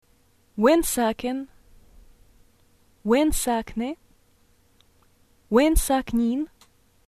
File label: wheredoyoulive, arabic